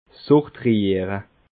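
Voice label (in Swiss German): "sortiere", Alsatian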